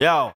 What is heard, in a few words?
"yo", Voice